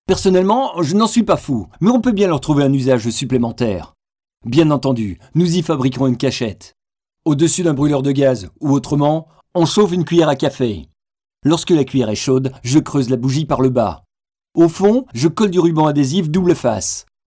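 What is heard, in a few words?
french male; lektoring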